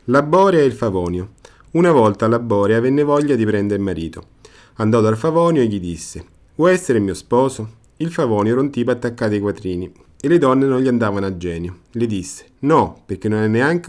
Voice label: tale